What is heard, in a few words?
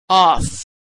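"off", American English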